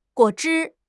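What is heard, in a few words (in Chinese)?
"guo3 zhi1", Chinese; HSK; HSK4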